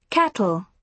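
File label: "kettle", British English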